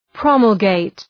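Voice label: "promulgate", English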